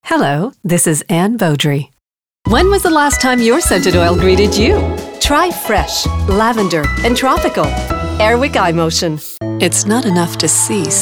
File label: English